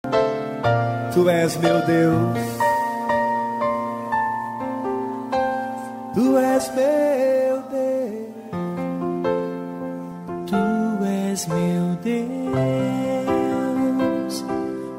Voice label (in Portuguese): pastora fem; Aniversário de Pastora – Voz Feminina; Telemensagens para todas as ocasiões